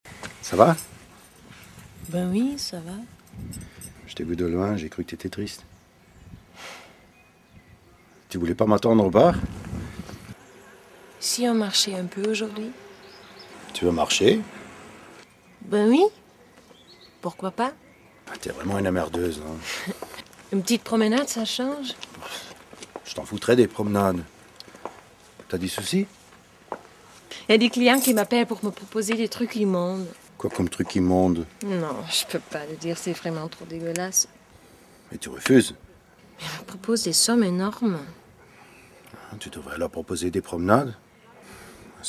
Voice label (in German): Franzoesisch